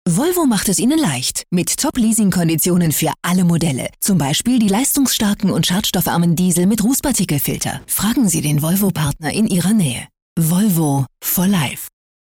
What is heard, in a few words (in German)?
Freundlich